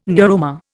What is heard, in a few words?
"neuroma", Indian